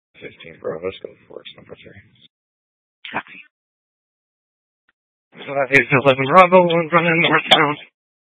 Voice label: Phx PD Dispatch, scanner